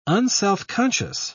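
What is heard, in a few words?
"unselfconscious", British English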